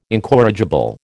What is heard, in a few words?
"incorrigible", American